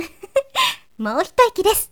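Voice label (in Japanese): "mouhitoikidesu", Japanese woman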